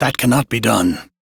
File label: Crew Reject, Male, en; Warframe; Railjack Crew; Arbiters Hexis